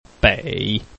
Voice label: "bei3", Chinese

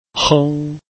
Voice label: "heng1", Chinese

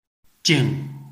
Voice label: "jing4", Chinese

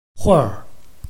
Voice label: "huir4", Chinese

